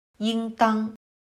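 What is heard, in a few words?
"应当", Chinese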